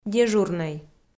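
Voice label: "дежурнӧй", Komi, female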